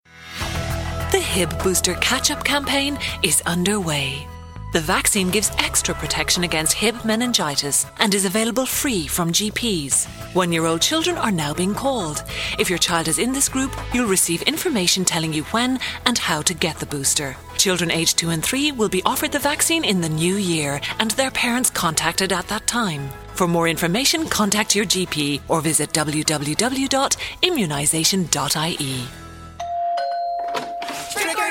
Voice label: voiceover